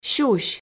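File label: "сюсь" Komi, female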